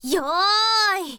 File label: info girl